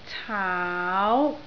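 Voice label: "caau2", Cantonese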